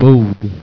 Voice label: bord, Swedish

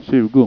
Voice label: "tjugo", Swedish

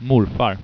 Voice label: morfar, Swedish

